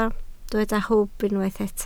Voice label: "hwb", Welsh

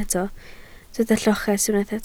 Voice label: "lloches", Welsh

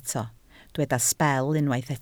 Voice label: "sbel", Welsh

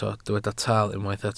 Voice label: "taal", Welsh

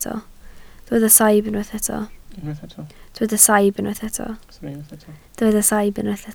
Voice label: "saib", Welsh

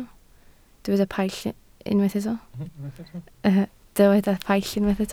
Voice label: "paill", Welsh

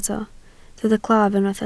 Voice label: "claf", Welsh

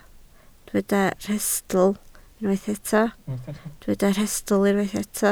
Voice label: "rhestl", Welsh

